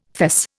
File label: "fess", Indian